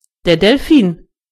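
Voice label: dolphin, German voices words; gcompris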